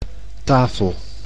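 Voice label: "tafel", Dutch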